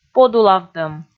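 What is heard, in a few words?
"подулавтӧм", Komi, female; kpv audio dict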